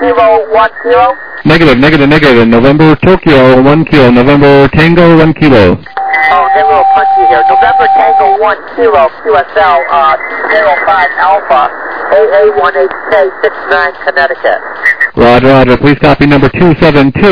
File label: SSB LSB 7MHz